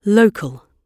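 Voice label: "local", British English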